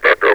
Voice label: "backup", metropolice vo; Half Life Alyx